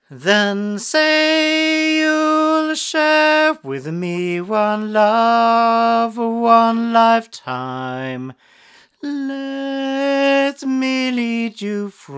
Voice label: Shure Beta58A male